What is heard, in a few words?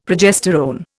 "progesterone", Indian; Dictionary